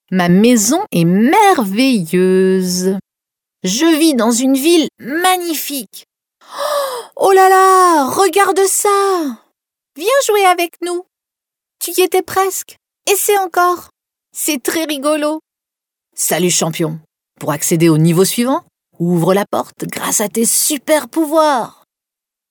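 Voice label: French female children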